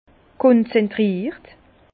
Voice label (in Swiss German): "konzentriert", Bas Rhin; vin; concentré